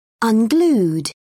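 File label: "unglued", English, uk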